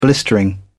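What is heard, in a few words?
"blistering", British English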